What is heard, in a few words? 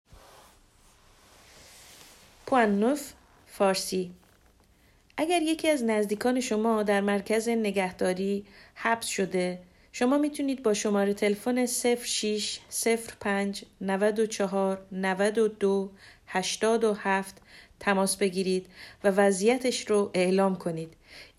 farsi